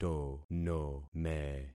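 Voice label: "tonome", concatenated syllables; Affixing experiment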